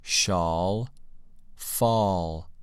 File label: "shawl" "fall"